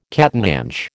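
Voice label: "catananche", American English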